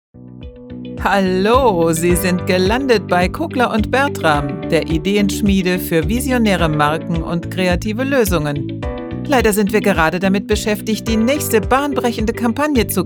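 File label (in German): Stimmprobe Anrufbeantworter Werbeagentur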